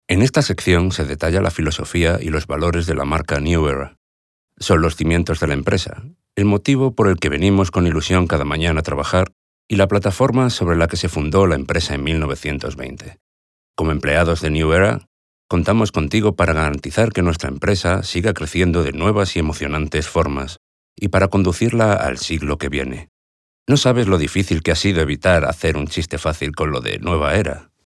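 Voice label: Spanish Spain narration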